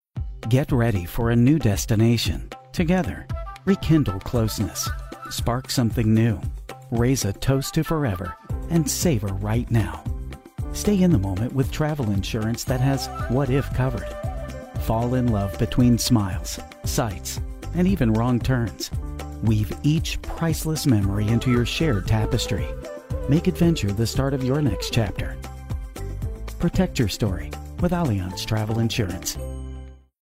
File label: friendly; Allianz